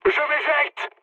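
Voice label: French bailout mood high; War Thunder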